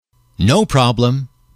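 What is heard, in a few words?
no problem, male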